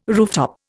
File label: "rooftop", Indian